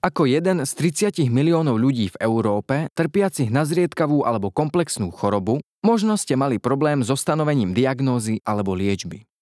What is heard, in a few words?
slovak e-learning